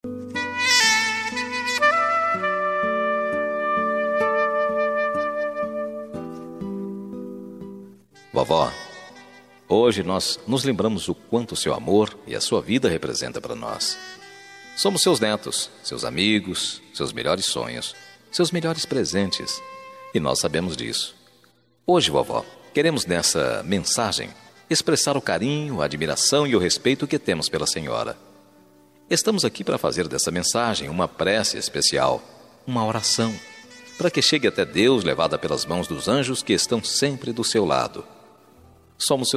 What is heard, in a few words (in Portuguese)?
dia avo masc plura, voz masculino; dia dos avos para avo plural